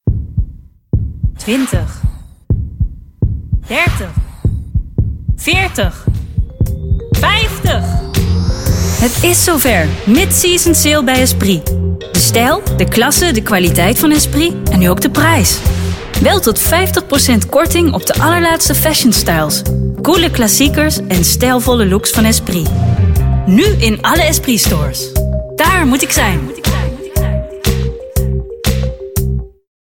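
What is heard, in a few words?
spot Dutch; esprit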